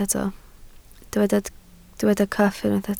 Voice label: "cyff", Welsh

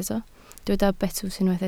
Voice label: "betws", Welsh

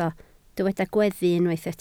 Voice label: "gweddu", Welsh

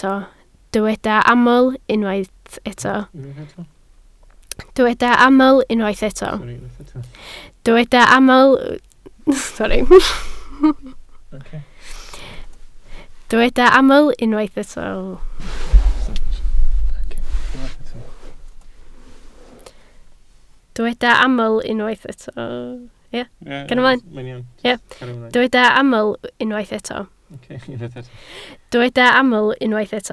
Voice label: "aml", Welsh

